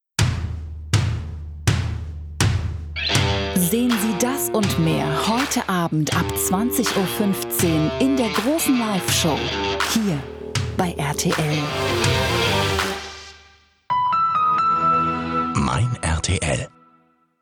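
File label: german tv shows, Femelle; doubleuse professionnelle allemande; Émissions de télévision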